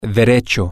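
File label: Spanish, straight ahead, male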